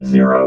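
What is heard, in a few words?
"zero", robot voice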